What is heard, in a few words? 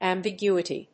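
"ambiguity", English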